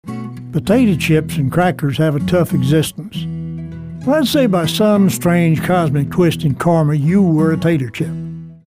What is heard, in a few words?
older mature